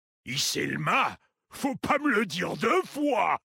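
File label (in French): French Homme Flirt; Mat; Kultirassien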